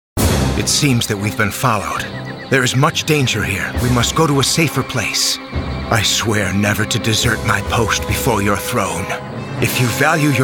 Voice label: Animation